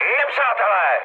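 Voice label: enemy detected, Czech, mood med; War Thunder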